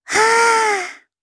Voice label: vox, jp; Miruru; kingsraid

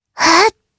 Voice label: Casting, jp; Lewsia; Kingsraid

